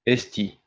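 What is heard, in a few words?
"h1esti", Proto-Indo-European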